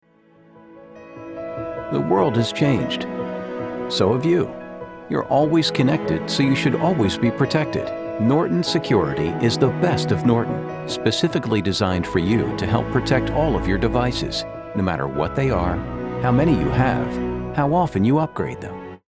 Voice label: 40 Something Vocal Fry Fatherly